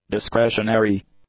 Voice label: "discretionary", English; nouns

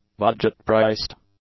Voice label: "budget-priced", English; adjectives

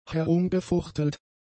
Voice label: "herumgefuchtelt", German; adjectives

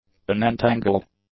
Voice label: "unentangled", english; adjectives

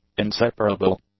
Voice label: "inseparable", English; adjectives

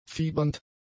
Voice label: "fiebernd", German; adjectives

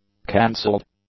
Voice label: "cancelled", English; adjectives

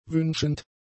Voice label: "wünschend", German; adjectives

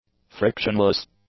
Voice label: "frictionless", English; adjectives

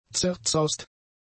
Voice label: "zerzaust", German; adjectives

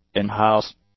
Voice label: "in-house", English; adjectives

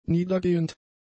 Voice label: "niedergehend", German; adjectives

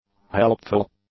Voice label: "helpful", English; adjectives

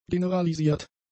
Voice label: "generalisiert", German; adjectives

